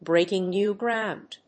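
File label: "Breaking New Ground", English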